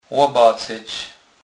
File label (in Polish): "lobacec", kurpiow